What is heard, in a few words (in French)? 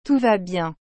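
"Tout va bien", French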